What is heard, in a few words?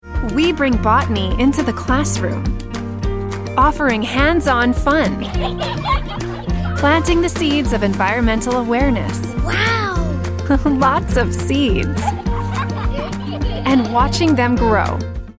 Preschool Commercial; Brooklyn